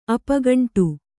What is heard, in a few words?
"ಅಪೋಗಂಡ", Kannada